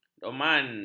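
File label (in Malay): "doman", Malay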